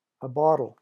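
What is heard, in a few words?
"a bottle", English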